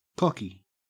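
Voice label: "cocky", Australian English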